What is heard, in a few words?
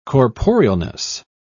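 "corporealness", British English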